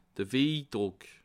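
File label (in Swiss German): "wiejdruck", Bas Rhin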